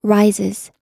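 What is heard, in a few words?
"rises", American English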